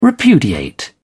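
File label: "repudiate", British English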